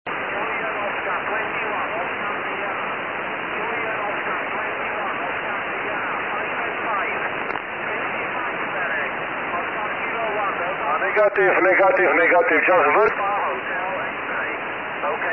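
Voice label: SSB qso, 144 MHz, amateur radio; JO21OS 674; qso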